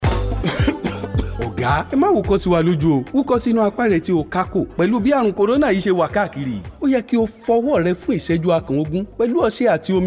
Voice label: Restaurant Yoruba